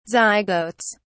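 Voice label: "zygotes", British English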